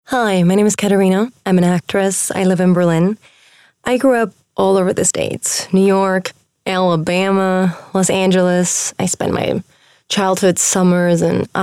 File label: ENG; Vorstellung